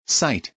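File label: "cite", English word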